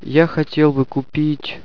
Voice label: would, russian